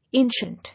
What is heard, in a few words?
"Ancient", English